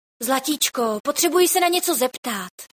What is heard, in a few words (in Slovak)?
zvonenie hlásky cz